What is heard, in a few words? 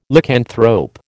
"Lycanthrope", American